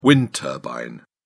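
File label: "wind turbine", British English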